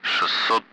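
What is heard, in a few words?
Speech, Russian, Numbers, 60; Allied Flight; DCS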